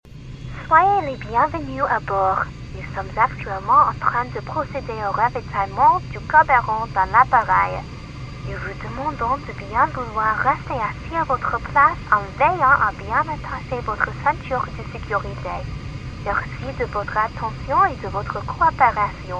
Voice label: French Narration